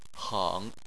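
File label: "heng", Chinese, third tone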